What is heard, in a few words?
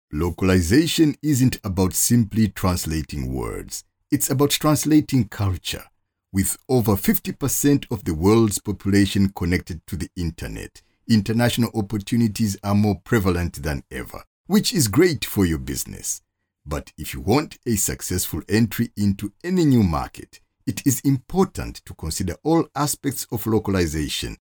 english africa male